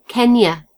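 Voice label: "Kenya", British English; gcompris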